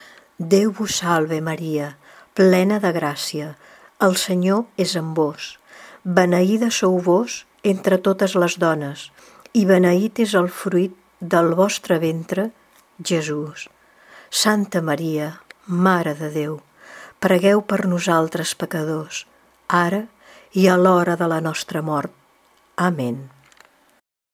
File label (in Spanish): ave maria catalan normal